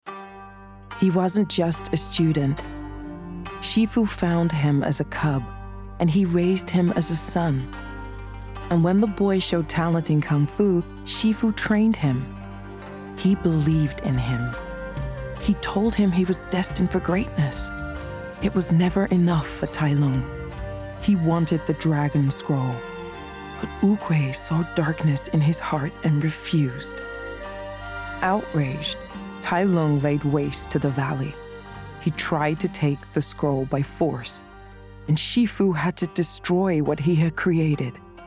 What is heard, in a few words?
Animation; Master Tigress